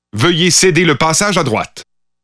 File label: Pathfinder French Canadian